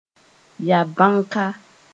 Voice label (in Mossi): "ya-bãka", moore